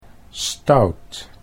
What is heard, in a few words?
"stout", Dutch